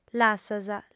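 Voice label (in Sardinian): "lassas", Sardinian, female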